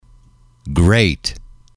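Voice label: "great", English, male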